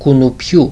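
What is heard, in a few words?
"koynoypioy", Greek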